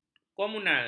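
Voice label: "komunal", Malay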